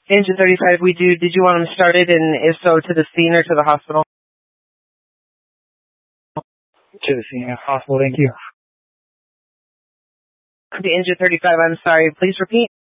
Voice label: Fire Dispatch; K8 - FDCOM 8 - South; H- Scottsdale